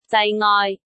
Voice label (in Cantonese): "zai6-ngoi6", cantonese